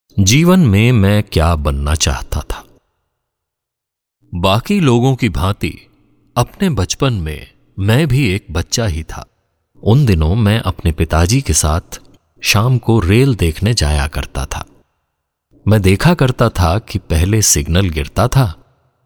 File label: Audio book Hindi